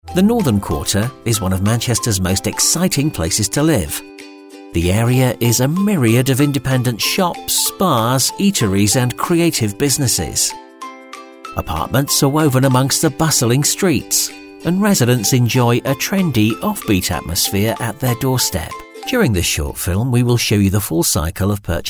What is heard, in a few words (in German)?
Eng UK Freundlich; Fremdtake